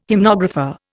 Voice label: "hymnographer", Indian